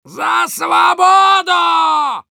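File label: Demoman battle cry, russian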